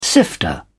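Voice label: "sifter", British English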